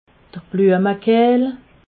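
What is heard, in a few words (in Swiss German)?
"bluemekehl", Haut Rhin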